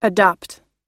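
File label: "adjust", US English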